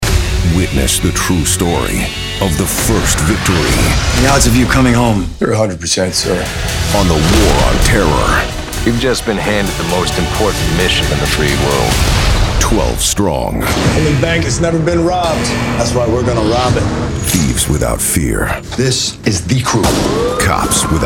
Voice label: TRAILER DARK DRAMA ACTION THRILLER HORROR SUPER HERO